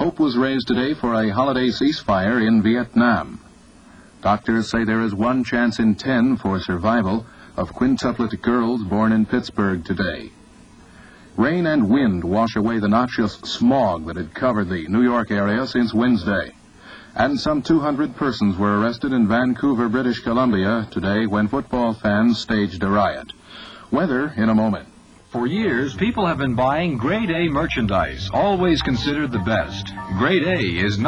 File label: news headlines commercial; News Headlines plus car commercial; November 25, 1966